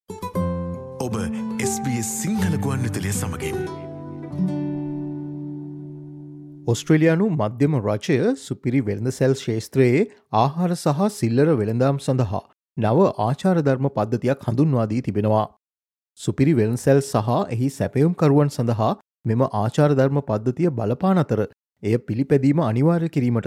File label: sinhala explainer grocery code; sbs